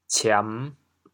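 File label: "ciam5", Teochew, chaoyang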